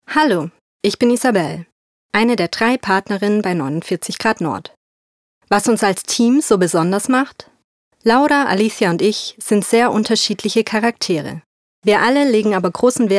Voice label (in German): Vorstellung, DE